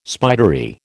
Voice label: "spidery", American

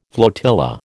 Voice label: "flotilla", American

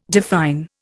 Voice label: "define", Indian

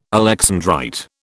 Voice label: "alexandrite", British

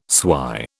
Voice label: "swy", British

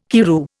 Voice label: "Karroo", Indian

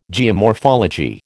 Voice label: "geomorphology", American

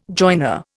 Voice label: "joiner", Indian

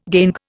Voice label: "gangue", Indian

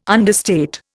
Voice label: "understate", Indian

